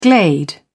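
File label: "glade", English, British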